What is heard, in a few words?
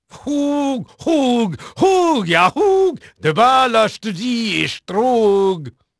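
Dutch male, voice; Pirates Of The Caribbean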